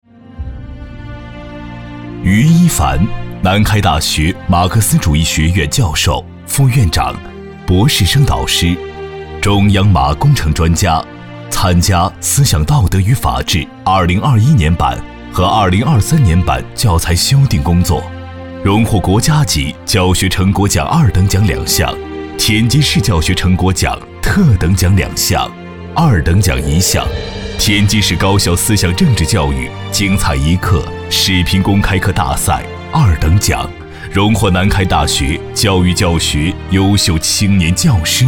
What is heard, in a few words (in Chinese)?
男 人物专题